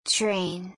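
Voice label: "TRAIN", English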